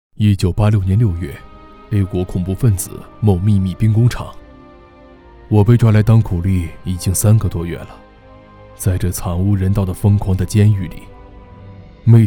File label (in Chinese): 男 内心独白 监狱